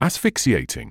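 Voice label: "asphyxiating", British English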